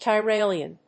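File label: "Tyrolean", English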